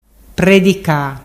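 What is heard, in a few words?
"predica", Ligurian dialect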